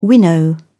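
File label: "winnow", British English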